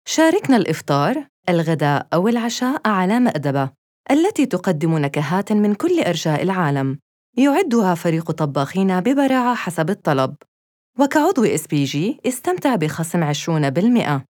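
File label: Arabic, IVR; Sheraton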